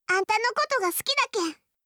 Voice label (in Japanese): 好きです 島根; 伊織